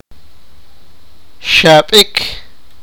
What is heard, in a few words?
sipakapense; vomit